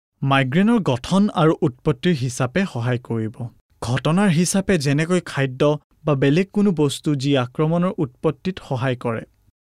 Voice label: ASSAMESE MALE